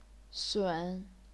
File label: "sun3", chinese pinyin